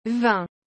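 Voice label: "vingt", French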